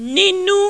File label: "ninu", Yoruba; CONSONANTS; ninu-ana-ona